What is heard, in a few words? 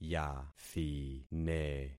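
"yathine", concatenated syllables; Affixing experiment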